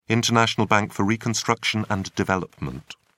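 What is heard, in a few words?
"international bank for reconstruction and development", British English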